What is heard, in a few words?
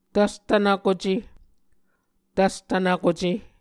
"tvstvnvkuce", Muscogee